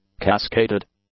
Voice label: "cascaded", English; adjectives

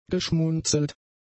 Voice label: "geschmunzelt", German; adjectives

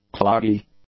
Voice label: "cloggy", English; adjectives

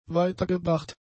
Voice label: "weitergebracht", German; adjectives

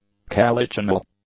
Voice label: "calycinal", English; adjectives

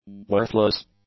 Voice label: "worthless", english; adjectives

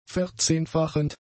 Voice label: "verzehnfachend", German; adjectives

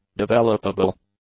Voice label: "developable", english; adjectives

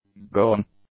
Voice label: "going", english; adjectives

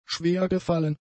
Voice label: "schwergefallen", German; adjectives

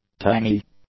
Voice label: "tangy", English; adjectives

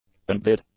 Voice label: "unbid", English; adjectives

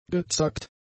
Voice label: "gezackt", German; adjectives

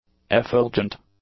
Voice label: "effulgent", English; adjectives

